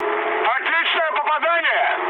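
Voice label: Russian bomb success mood med, speech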